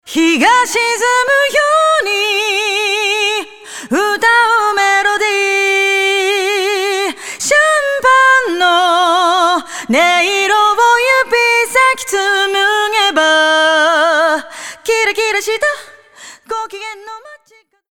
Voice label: Mix Dyn EQ MB Comp Vo On